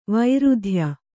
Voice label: "ವೃಥಾಯ", Kannada

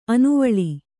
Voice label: "ಆನೆವಾಲು", Kannada

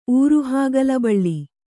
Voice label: "ಊರುಹಾಗಲಬಳ್ಳಿ", Kannada

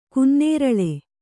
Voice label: "ಗನ್ನೇರಳೆ", Kannada

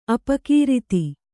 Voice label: "ಅಪಕೃತ", Kannada

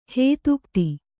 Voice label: "ಹದಗುದಿ", Kannada

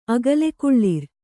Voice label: "ಅಗಲೆಕುಳ್ಳಿರ್", Kannada

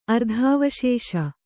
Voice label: "ಅರ್ಧಾವಶೇಷ", Kannada

